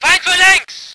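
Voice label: voice german vehicle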